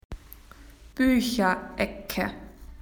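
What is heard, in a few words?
"book corner", Australian English; PictureDictionary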